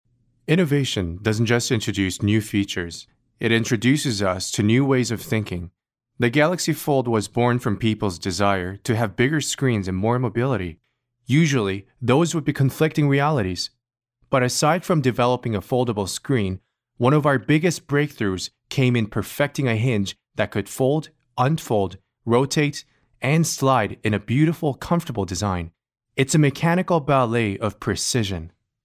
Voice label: male korean voice over talent english